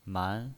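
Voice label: "man", pinyin voice, Mandarin Chinese, second tone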